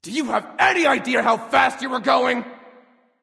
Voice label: Taunt Directed; K9 Anubis; Mercury

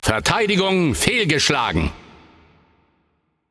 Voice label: Announcer German Match Payload Enemy Successful

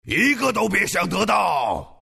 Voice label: Axe deny zh; Dota 2

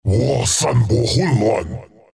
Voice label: attack zh; Dota 2

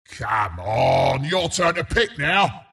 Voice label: announcer pick; bristleback

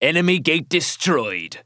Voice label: Announcer Match Destroyed Enemy Gate; First Mate

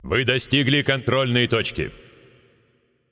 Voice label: Announcer Russian Match Payload Checkpoint

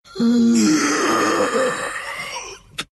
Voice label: death, ru

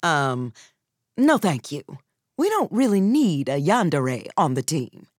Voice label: Taunt Directed; Imani; Evie

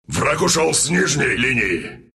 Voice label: lane missing, ru; Wraith; dota2

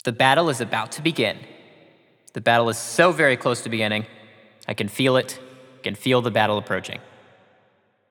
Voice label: Announcer Match Start Prepare

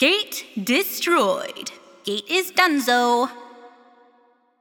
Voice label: Announcer Match Destroyed Gate; Paladins

